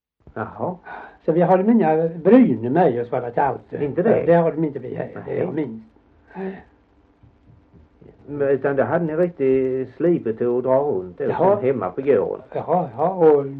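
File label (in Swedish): Gällared Halland, dialekter; Folkminnen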